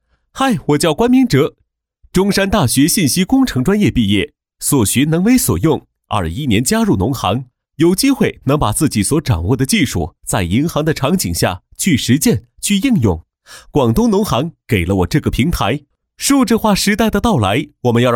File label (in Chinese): 男 角色旁白 年轻轻快, 年轻气质 幽默搞怪; 农行